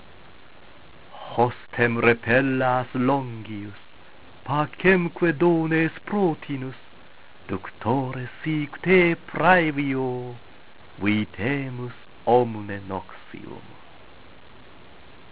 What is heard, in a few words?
Veni recitatio, latin